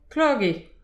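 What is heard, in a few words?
"klok eo", Breton; petit precis de prononciation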